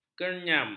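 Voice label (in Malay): "kernyam", Malay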